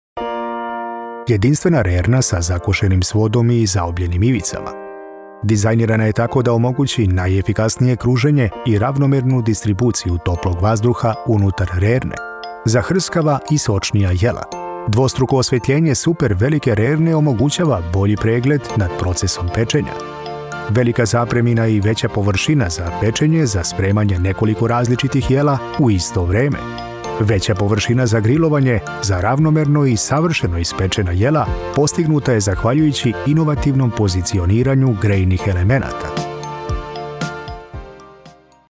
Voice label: best serbian corporate voice over male studio